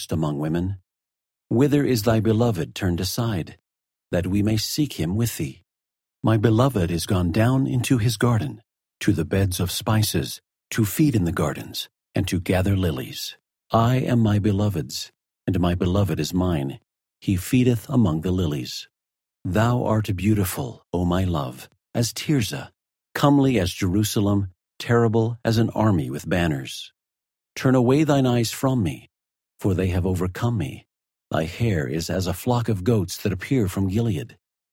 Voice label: the song of solomon male voice; the song of solomon 06; the old testament

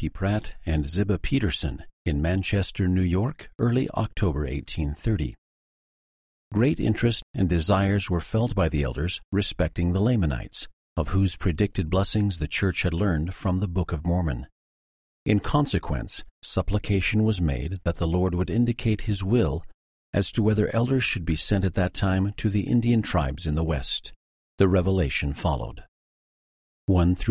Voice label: section female voice eng

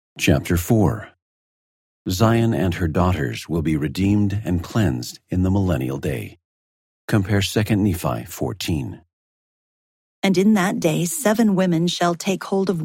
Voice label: isaiah 04 female voice; the old testament

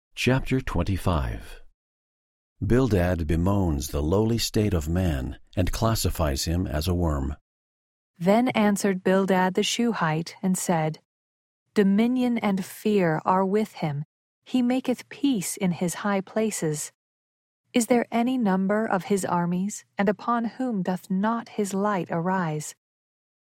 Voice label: job female voice; job 25; the old testament

